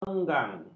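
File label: "enggang", Malay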